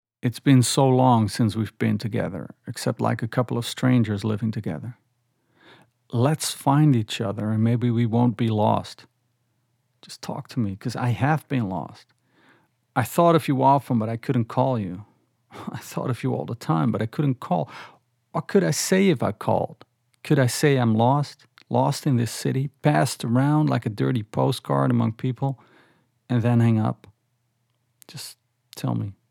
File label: Accent Standard American